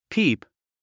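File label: "peep", English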